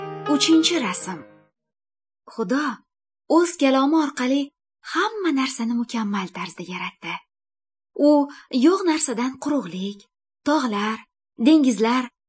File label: good news female uzbek northern